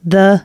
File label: announcements, female